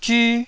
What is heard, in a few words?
"zyu1", taiwanese